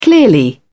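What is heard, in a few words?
"clearly", English, uk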